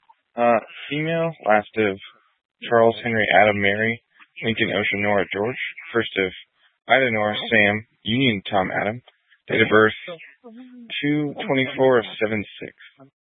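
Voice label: Police Dispatch, Scanner audio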